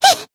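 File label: damaged, Japanese; tsukiha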